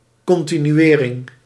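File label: "continuering", Dutch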